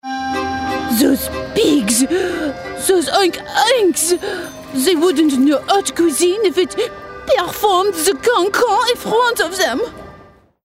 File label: ANIMATION French Baker